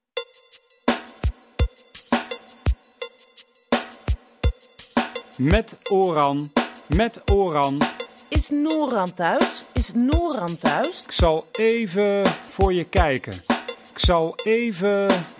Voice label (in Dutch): Taalriedel Telefoon